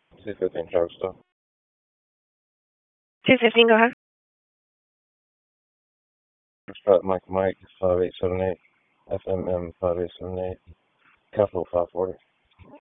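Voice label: Police Northeast District Dispatch, p25; Raleigh Police Northeast District Disp; wake p25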